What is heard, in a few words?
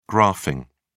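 "graphing", British English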